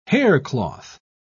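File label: "haircloth", British English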